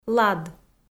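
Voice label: "лад" Komi, female; kpv audio dict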